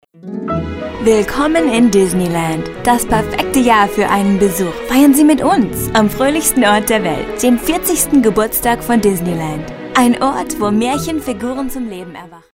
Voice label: German; Disney